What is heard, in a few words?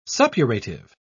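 "suppurative", British English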